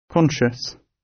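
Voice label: "conscious", British; Crime and punishment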